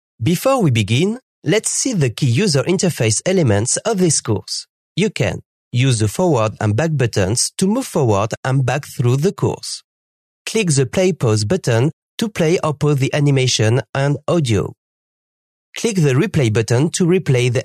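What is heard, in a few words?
French, male, English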